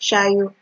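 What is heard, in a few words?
"shayo", Nigerian Pidgin, female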